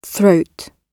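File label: "throat", British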